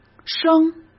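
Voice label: "声", Mandarin Chinese; chinese flashcards; hsk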